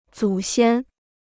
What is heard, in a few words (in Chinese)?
"祖先", Chinese